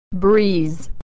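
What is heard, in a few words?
"breeze", American English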